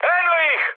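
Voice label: Serbian enemy detected mood high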